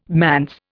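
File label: "manse", Indian English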